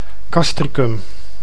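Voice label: "Castricum", Dutch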